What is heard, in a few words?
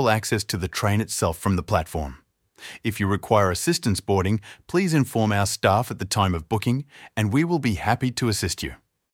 Station map audio description